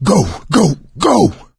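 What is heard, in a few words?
emphatic go quiet, voice, male; Left 4 Dead 2; coach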